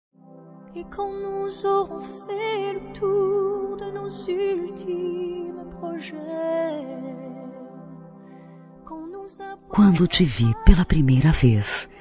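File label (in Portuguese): Rom sem pressa, feminino; Telemensagens; Românticas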